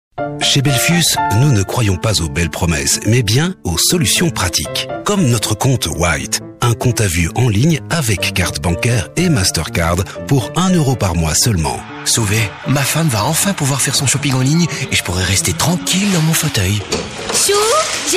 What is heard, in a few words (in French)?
french be m commercial